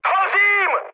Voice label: on fire, speech cze, mood high; War Thunder